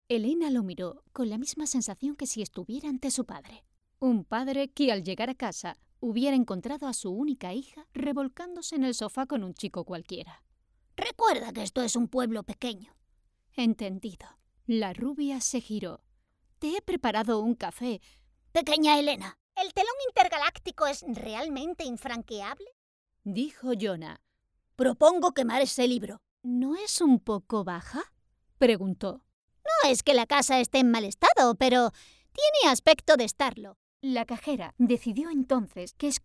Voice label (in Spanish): AudioLibro